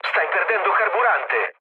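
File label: Italian tank damaged mood low, speech; War Thunder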